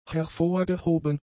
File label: "hervorgehoben", German; adjectives